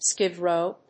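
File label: "skid row", English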